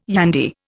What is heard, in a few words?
"yandy", Indian